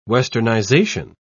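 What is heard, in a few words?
"westernization", British English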